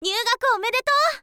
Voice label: "nyuugaku omedetou", girl